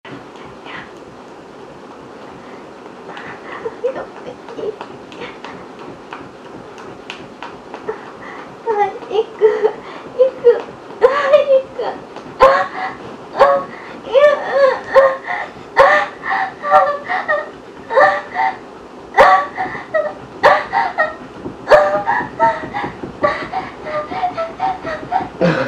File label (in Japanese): えち音声サンプル音声